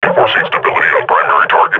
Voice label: firing, combine grunt